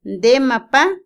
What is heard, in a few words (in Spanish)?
Otomí, mujer; mediodía; INALI